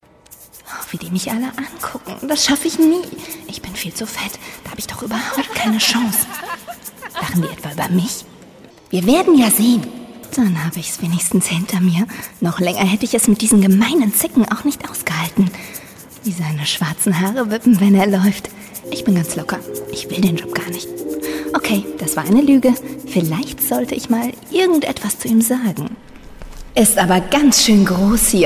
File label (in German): Hoerspiel